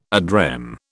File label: "ad rem", British